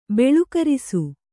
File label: "ಬಲುಕರಿಸು", Kannada